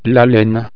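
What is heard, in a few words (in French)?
"laine", French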